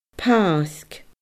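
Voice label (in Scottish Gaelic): "pairc", Scottish Gaelic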